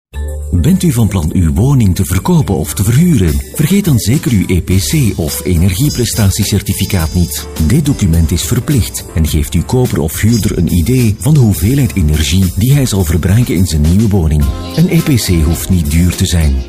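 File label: flemish male commercial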